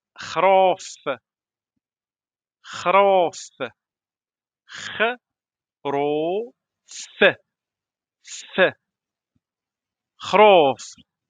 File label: how to pronounce sheep in moroccan arabic morocco eid al adha